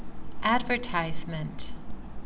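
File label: "advertisement", Canadian English, female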